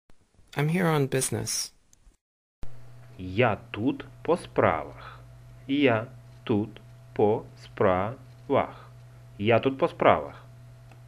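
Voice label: Ukrainian, Im here on business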